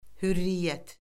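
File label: "hürriyyət", Azerbaijani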